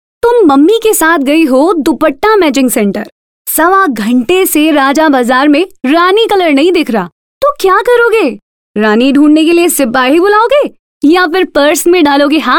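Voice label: hindi, female voice; mazaa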